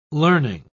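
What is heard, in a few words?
"learning", English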